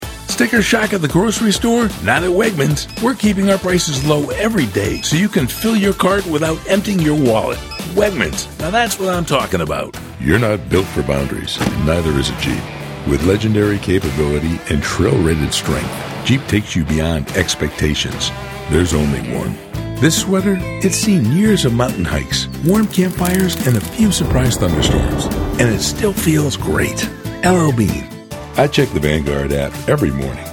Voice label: Commercial